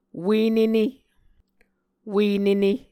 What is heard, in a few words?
"ue nene", Muscogee